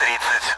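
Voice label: Speech Sound Russian Common AWACS Numbers; DCS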